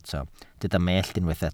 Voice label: "mellt", welsh